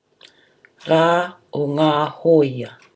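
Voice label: "ra o nga hoia", Māori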